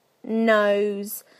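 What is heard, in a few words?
"nose", British English; PictureDictionary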